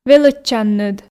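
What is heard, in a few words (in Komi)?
"велӧдчанныд", Komi, female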